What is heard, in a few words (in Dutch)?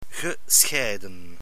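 "gescheiden", Dutch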